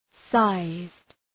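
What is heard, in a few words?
"sized", English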